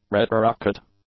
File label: "retrorocket", English; nouns